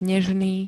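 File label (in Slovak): "nežný", Slovak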